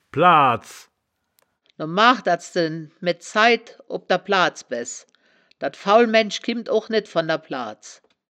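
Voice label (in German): "plaads", Wittlicher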